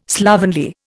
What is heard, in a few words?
"slovenly", Indian